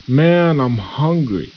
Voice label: gangster idle

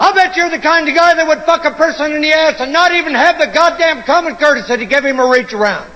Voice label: chat; sgthartmann

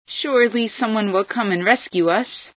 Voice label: rescue us, female

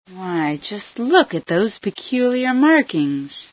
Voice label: peculiar marks, female

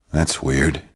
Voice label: "scout", grunt; nimble grunt

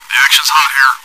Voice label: chat

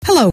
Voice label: "hello", scientist female

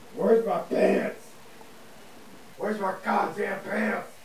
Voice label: Chat

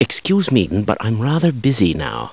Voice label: scientist busy

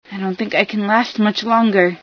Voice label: i wounded bad, female

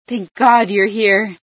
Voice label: thank god, female

